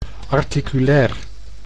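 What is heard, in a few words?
"articulair", Dutch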